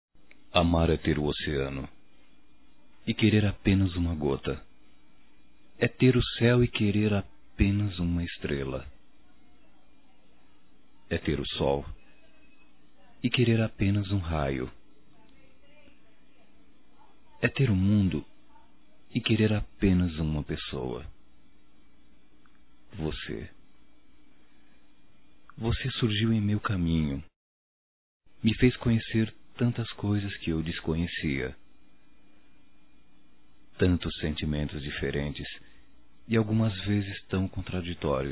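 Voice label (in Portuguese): voz Romântica Poesia, masculino; Telemensagens; Só Voz; Telemensagens 2017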